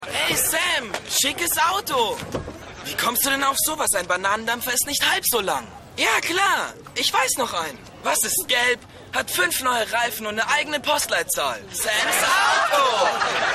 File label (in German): Junge